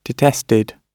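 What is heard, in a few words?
"detested", British English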